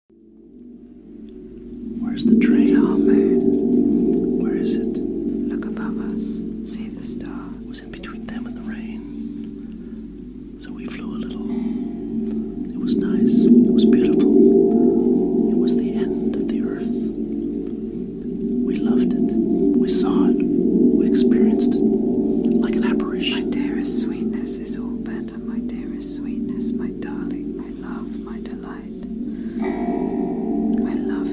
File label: Whispers